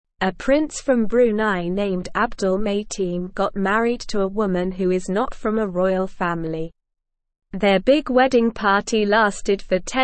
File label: English SLOW Reading; A Princes Big Wedding Party in Brunei; English Newsroom